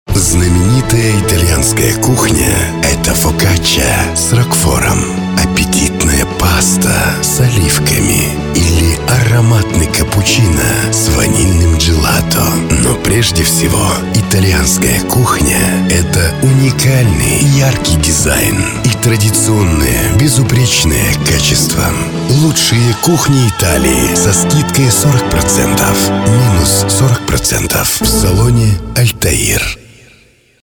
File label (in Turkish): Rusca Erkek, rusca seslendirme; erkek sesler